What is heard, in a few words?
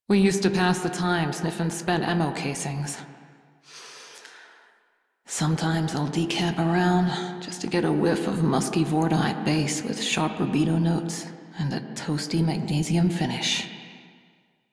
Crew Idle, Female; Dialog; en; Warframe; Railjack Crew; Steel Meridian